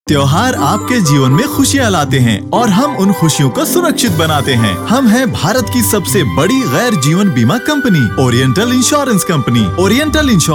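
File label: Hindi, Commercial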